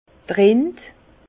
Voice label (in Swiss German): "rind", Bas Rhin; écorce